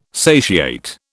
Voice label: "satiate", British

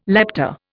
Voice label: "lepta", Indian

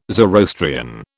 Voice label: "Zoroastrian", British

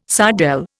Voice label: "sardelle", Indian

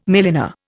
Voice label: "milliner", Indian

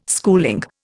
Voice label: "schooling", Indian

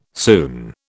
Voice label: "soon", British

